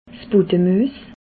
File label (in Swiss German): "budde muess", alsace